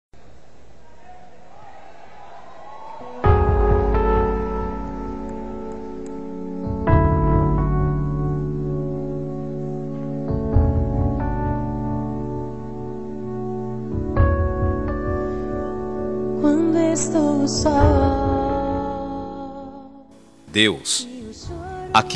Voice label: ANIV EVANG MASC, telemensagem evangelica aniversario

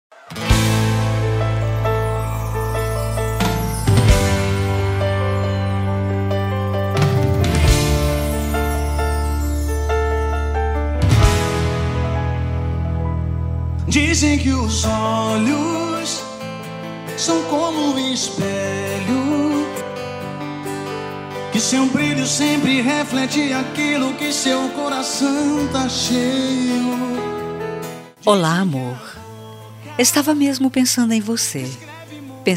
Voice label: ROMANTICA SUAVE SERTANEJA FEM; telemensagem romantica suave

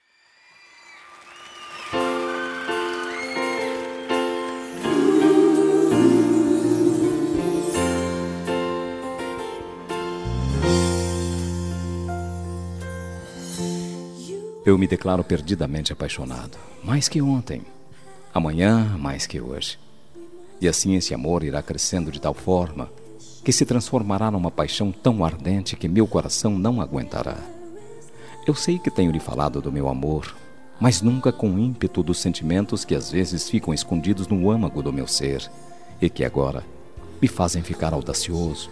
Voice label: ROMANTICA MASC, telemensagem romantica masculina

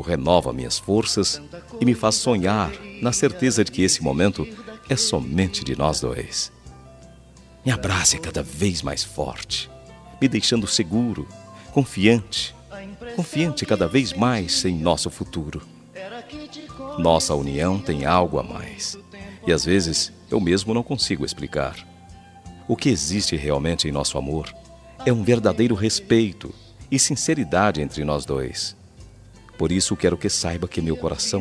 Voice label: ROMANTICA PARA ESPOSA, telemensagem

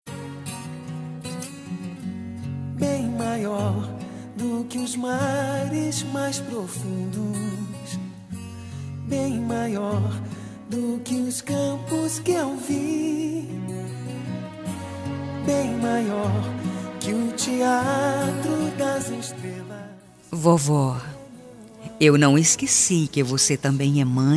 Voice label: DIA DAS MAES PARA AVO FEM; telemensagem dia das maes avo

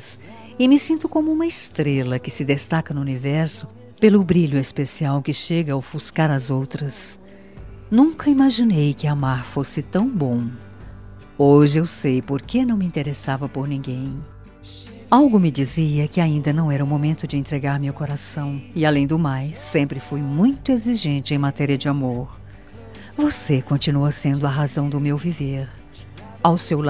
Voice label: ROMANTICA PARA ESPOSO, Telemensagem

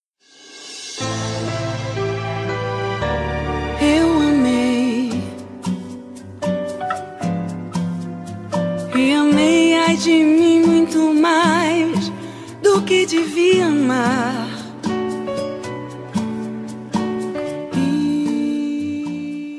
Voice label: RECONCILIACAO MASC, Telemensagem

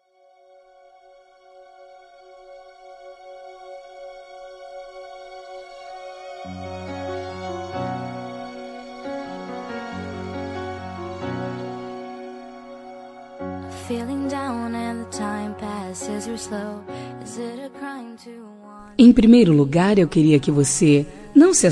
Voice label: Amizade Que Virou Paixao Feminino, telemensagem

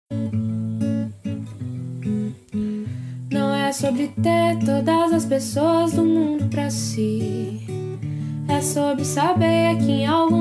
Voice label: MENSAGEM DE AMIZADE F, telemensagem

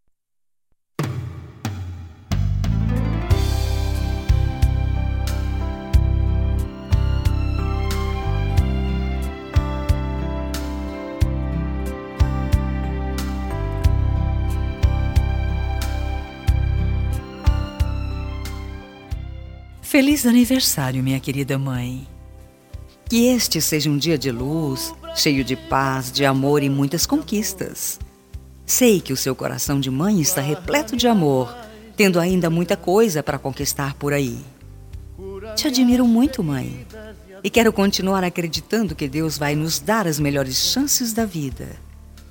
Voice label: aniversario MAE FEM, telemensagem aniversario mae

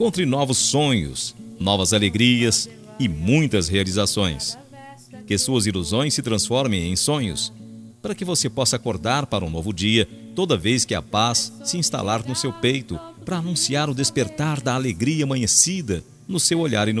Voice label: ANIV AFILHADO MASC, telemensagem aniversario afilhado

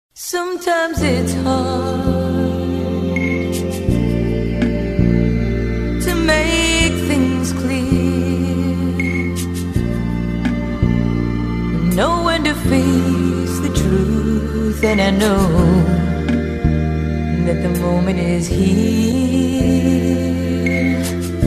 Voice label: aniversario FILHA FEM, telemensagem aniversario filha filho

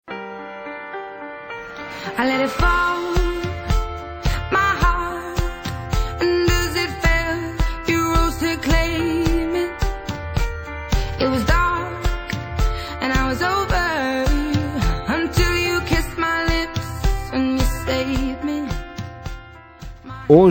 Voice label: ANIV 15 ANOS PARA ELE pais M, telemensagem

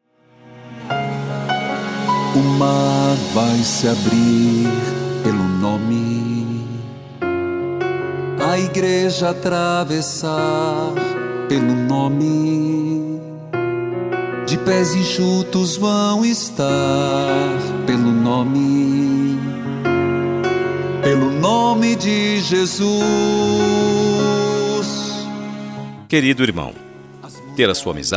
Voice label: ANIV RELIGIOSO IRMAO MASC, Telemensagem; telemensagem aniversario religioso

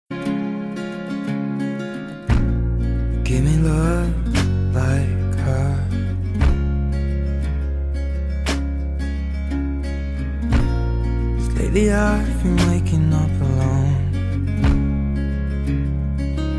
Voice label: ROMANTICA MASC, telemensagem romantica masculina

